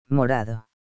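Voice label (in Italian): "viola", italiano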